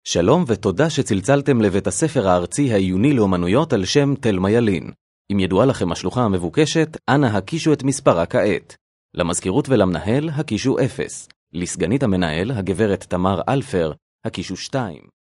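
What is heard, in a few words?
Hebrew, male, e-learning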